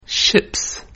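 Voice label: "Ships", English plural; pronunciation of plural s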